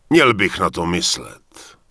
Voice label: Human Male Warrior NPC Vendor; wowpreklad; czwow